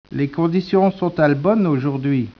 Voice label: speech, French